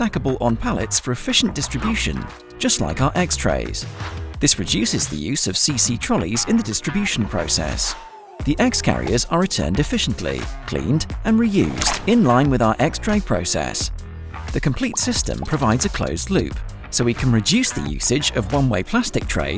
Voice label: Flowers Explainer Video young UK male voice over artist; Syngenta